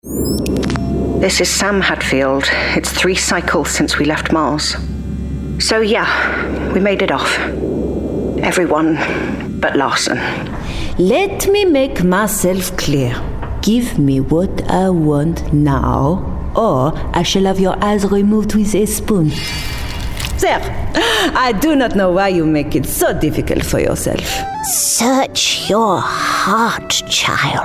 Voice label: voiceover gaming character